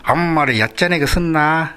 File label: "yachanae", minamiyamagata